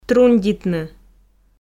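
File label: "трундитны", Komi, female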